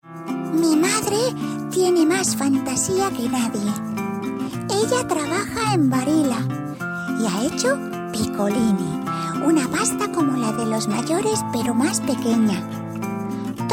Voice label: female spanish pasta comercial; ideasonora